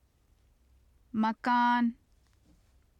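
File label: "makaan", Urdu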